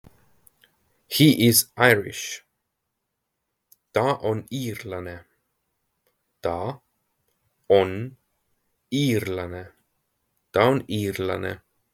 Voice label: He is Irish, Estonian phrasebook; the talking estonian phrasebook